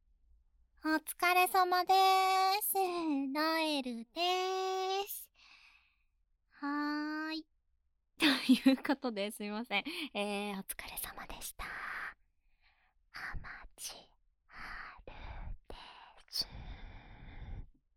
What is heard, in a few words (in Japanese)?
フリートーク; 『こあくまJKりふれ』 ～ちょっぴりえっちなJKが、耳かきと耳舐めで癒してくれる～; バイノーラル